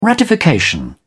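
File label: "ratification", British English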